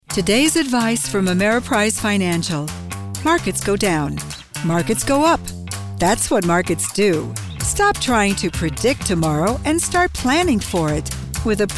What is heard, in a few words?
COMMERCIAL